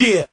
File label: "yeah" jazzy fat; ooh ahh